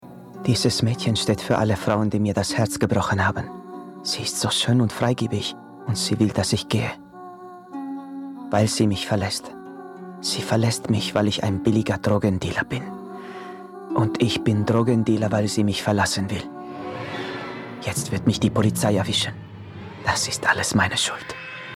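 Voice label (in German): Synchron spanisch Akzent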